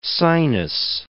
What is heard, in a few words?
"sinus", English